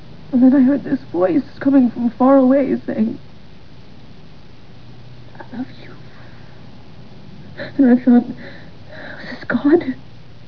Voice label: god voice